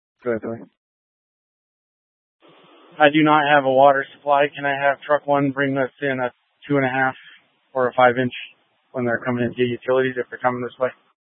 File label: Incident Tac 1, scanner